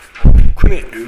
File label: "kune", minamiyamagata